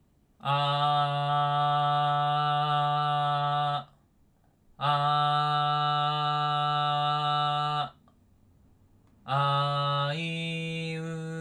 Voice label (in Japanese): AT2050 単一指向性 50cm from right side